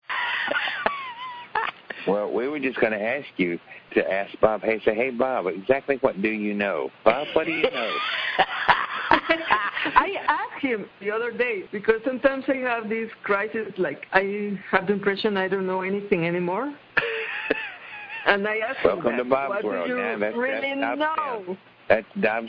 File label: rant